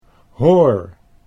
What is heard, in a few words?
"hoor", Dutch